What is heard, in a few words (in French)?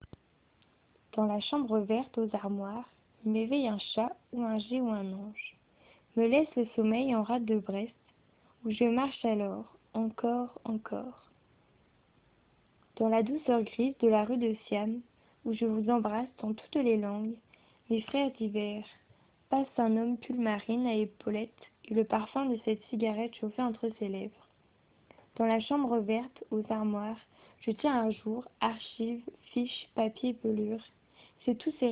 lecture